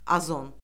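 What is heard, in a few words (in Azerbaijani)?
"ozon", Azerbaijani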